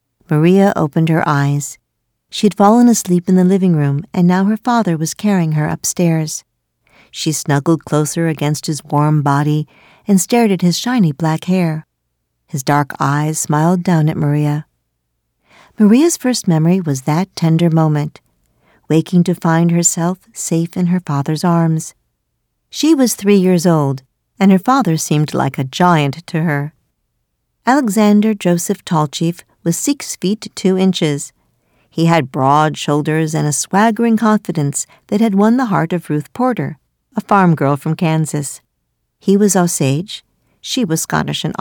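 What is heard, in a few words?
audiobook English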